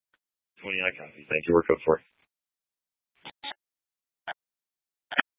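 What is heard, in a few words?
Tempe police department, scanner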